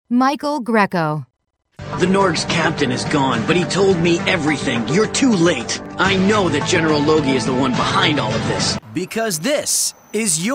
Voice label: Animation